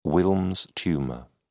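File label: "wilms tumour", British English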